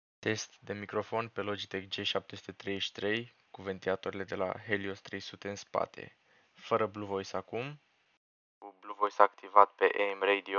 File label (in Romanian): Logitech G733 Microfon Test